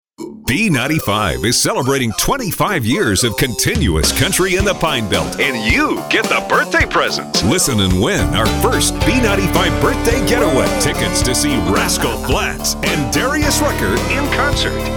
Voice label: RADIO IMAGING